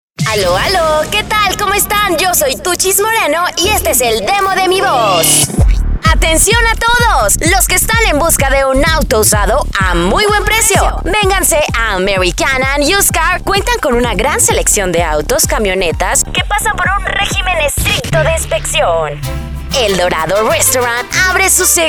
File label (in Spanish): spots